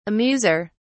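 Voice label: "amuser", British English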